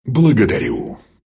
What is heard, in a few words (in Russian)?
thanks ru; dota2